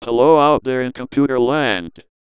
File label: dectalk